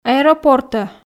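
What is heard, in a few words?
"аэропортӧ", Komi, female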